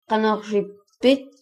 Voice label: how are you, greenlandic

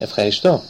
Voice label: "thanks", Greek

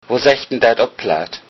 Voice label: how to say, low saxon

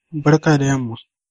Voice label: good afternoon, hausa

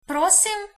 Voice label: you're welcome, slovenian

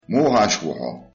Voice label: how are you, chechen

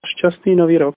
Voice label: new year, slovak; Štastný nový rok

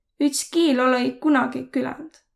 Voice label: one language, Võro

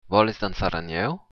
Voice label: dance, occitan

